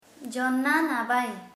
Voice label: "ear corn", Sora